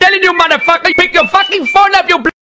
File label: really mad indian; Meme Sound